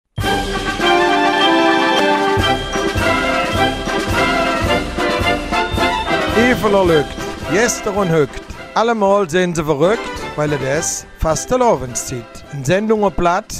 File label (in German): Eifeler Mundart; Karneval in Büllingen